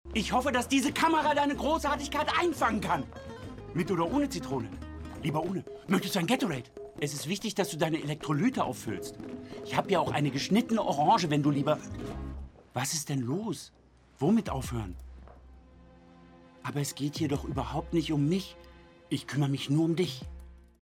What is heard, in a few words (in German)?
Synchron